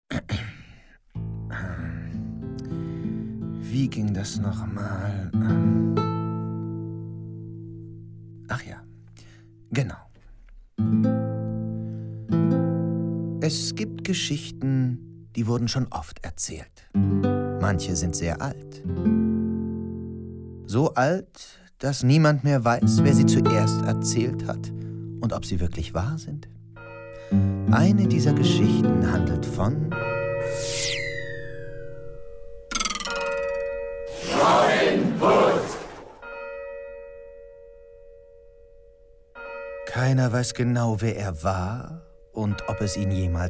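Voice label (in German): hoerspiel erzähler; LOFT VOICES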